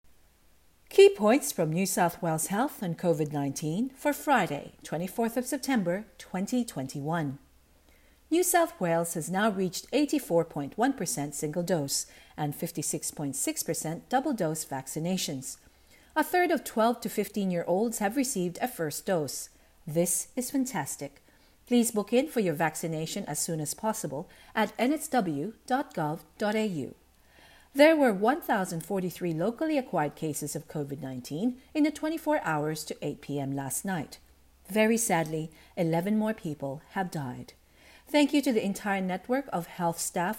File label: english; covid-19 coronavirus; covid-19 daily key points